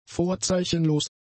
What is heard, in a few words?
"vorzeichenlos", German; adjectives